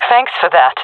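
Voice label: thankful, female voice; player vo; Counter Strike Model Pack; CS:GO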